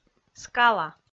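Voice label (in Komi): "скала", Komi, female; kpv audio dict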